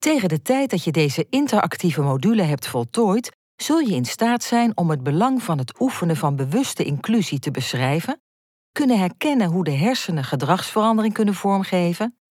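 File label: Dutch, elearning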